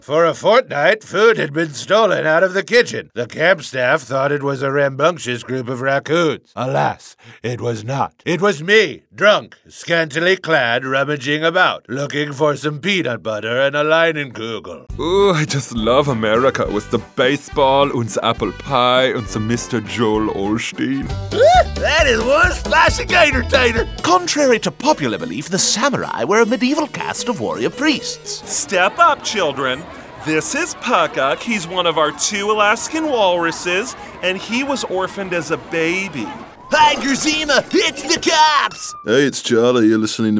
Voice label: Character

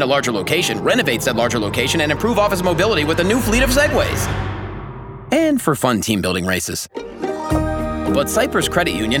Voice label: business lending radio; CCU

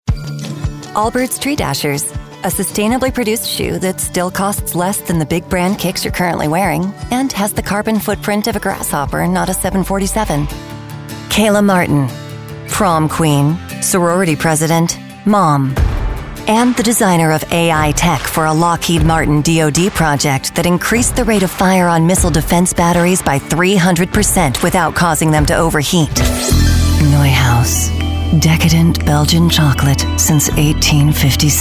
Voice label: Commercial

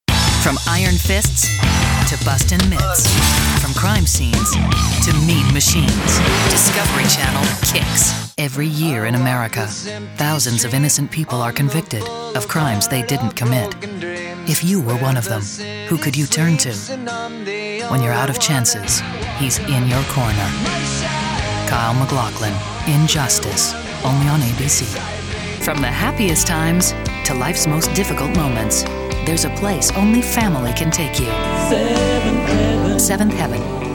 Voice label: Promo

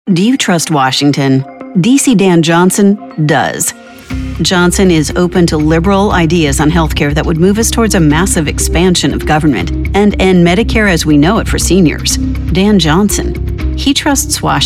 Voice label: Demo Political

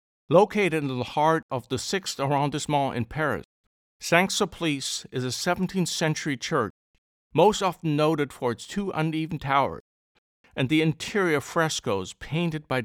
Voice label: English Narration

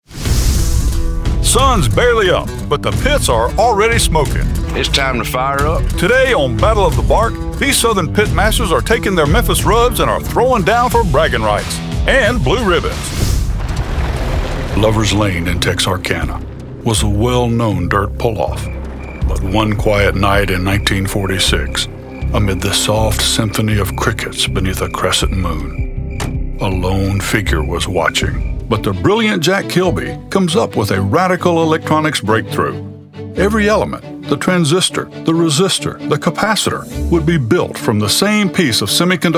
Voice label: TV Narration & Documentary

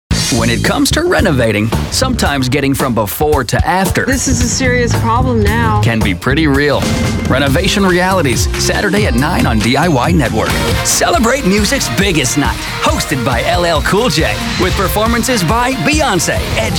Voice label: Male promos

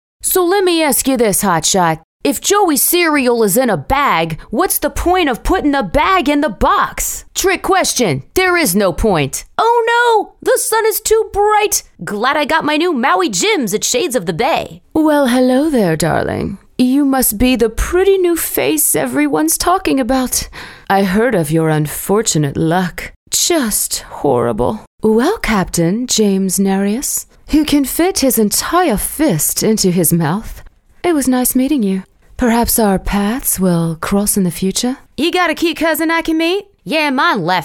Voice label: CHARACTER

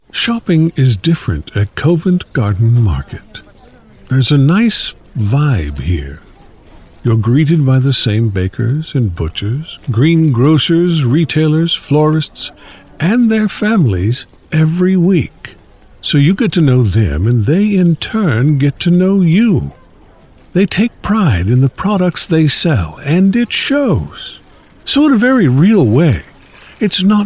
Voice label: Documentary-type advertisement

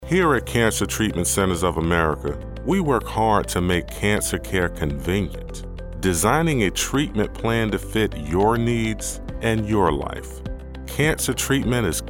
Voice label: Commercial

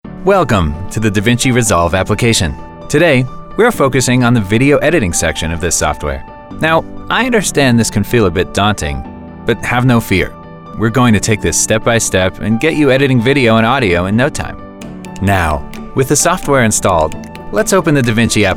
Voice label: E-Learning

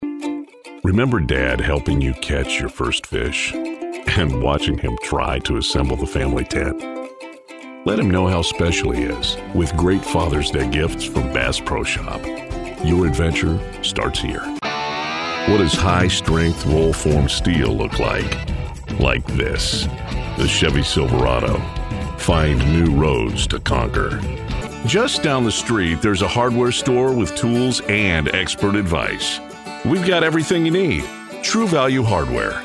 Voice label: commercial

